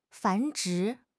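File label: "繁殖", Chinese; HSK6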